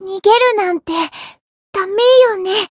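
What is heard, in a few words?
encount, Japanese; mana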